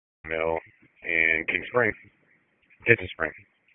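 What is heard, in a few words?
Police Dispatch; Wake Forest Police Disp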